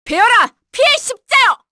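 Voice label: Vox Skill, Korean; Seria; kings raid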